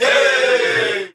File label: "yay", group of men; ooh ahh